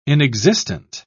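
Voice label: "inexistent", British English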